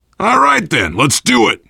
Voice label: generic responses, voice, biker; Left 4 Dead 2; survivor

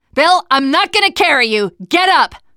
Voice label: revive friend loud, teen girl

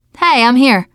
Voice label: scenario join, teen girl; player; survivor; voice

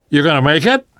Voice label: revive friend, namvet, survivor voice; Left 4 Dead 2; left4dead2 dlc3

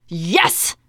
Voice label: taunt, teen girl; player; survivor; voice

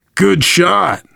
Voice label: nice shot, voice, biker; Left 4 Dead 2; survivor

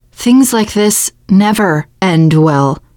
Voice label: generic, teengirl, voice

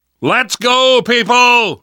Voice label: move on, survivor voice; namvet; Left 4 Dead 2; left4dead2 dlc2

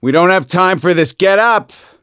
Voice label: revive friend loud, voice; gambler

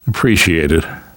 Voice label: thanks, namvet, survivor voice; Left 4 Dead 2; left4dead2 dlc2

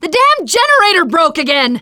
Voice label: teen girl

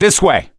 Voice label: misc directional, voice; gambler

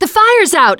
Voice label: gun end, teengirl, voice

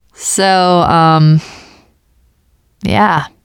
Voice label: world hospital, teengirl, voice; player; survivor

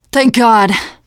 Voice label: safe spot ahead reaction, teengirl, voice; player; survivor

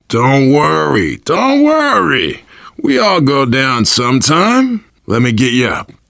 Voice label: revive friend, voice, biker; Left 4 Dead 2; survivor

